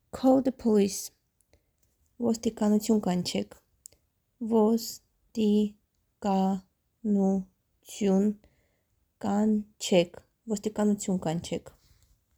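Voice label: English "Call the police", Armenian "Vostikanutyun kanchek"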